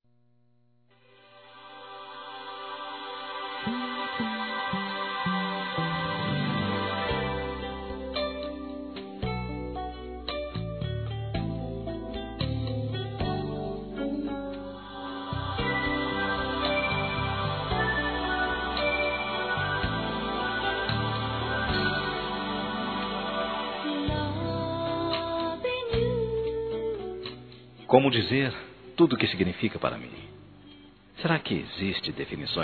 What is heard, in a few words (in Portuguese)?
ROMANTICO M; Aniversários; masc